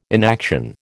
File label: "inaction", American